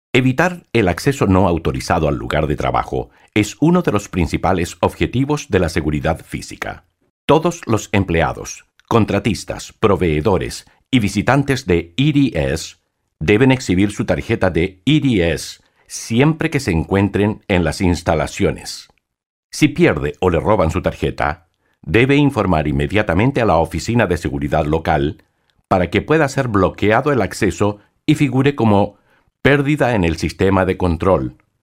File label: spanish male